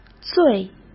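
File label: "醉", Mandarin Chinese; hsk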